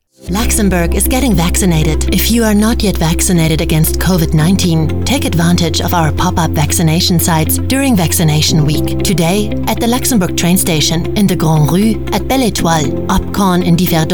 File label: Radio Spot English Vaccination